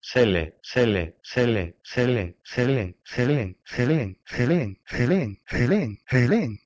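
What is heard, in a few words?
Proto-Indo-European selh1 to Ancient Greek helein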